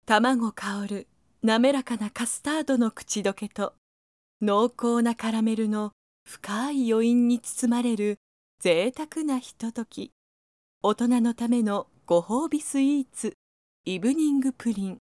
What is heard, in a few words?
female